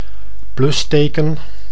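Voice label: "plusteken", Dutch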